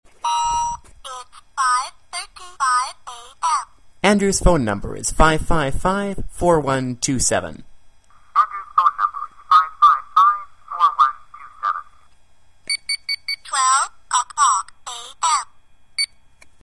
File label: talking memo watch